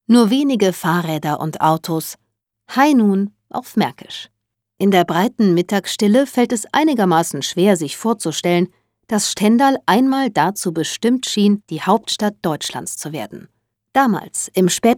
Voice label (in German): K Fremdtake, Freundlich